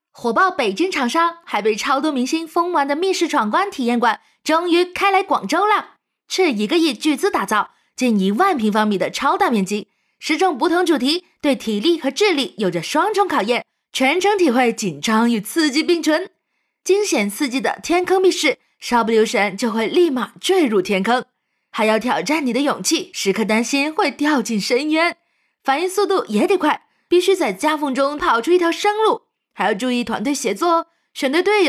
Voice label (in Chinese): 女 普通话; 疯狂的麦咭